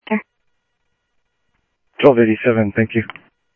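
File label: Sheriff Lake Patrol, scanner audio trunk; MCSO Lakes 1; ThompsonPeakScot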